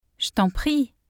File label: "je t'en prie", French; thank you